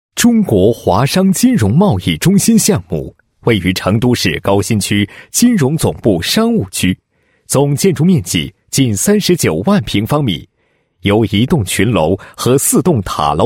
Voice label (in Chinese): 男 工程简介; 中国华商金融贸易中心